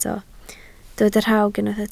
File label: "rhawg", Welsh